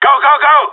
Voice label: move it, radio; GSG9

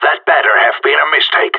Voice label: friendly fire, radio; GSG9

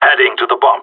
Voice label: going to guard loose bomb, radio; GSG9

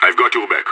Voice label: covering, radio; IDF

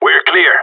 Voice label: clear, radio; GSG9

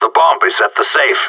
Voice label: bomb planted, radio; GSG9

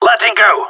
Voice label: in combat, radio; GSG9

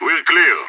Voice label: clear, radio; IDF

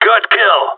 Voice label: good shooting, radio; GSG9

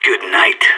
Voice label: enemy down, radio; SAS

